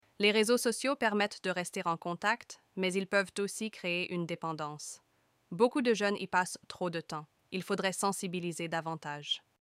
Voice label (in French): Accent belge Reseaux sociaux